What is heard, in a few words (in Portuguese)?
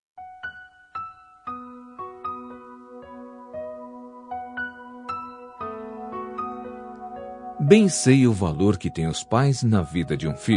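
Aniversário de Enteada o, male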